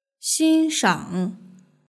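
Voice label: "xinshang" Chinese word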